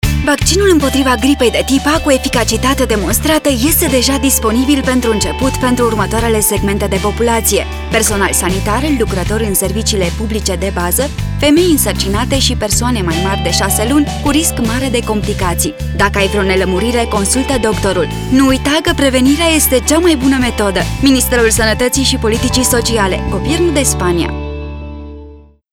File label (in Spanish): RUMANO